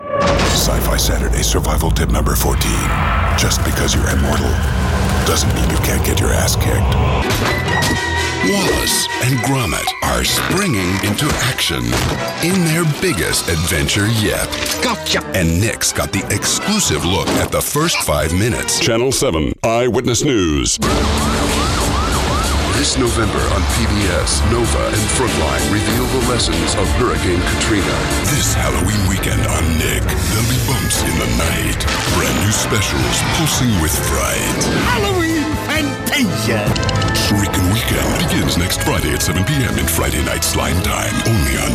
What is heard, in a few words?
american promo